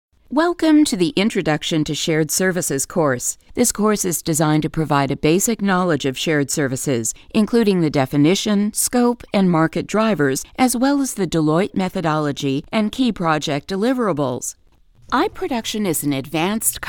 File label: eLearning Female; commercial voiceover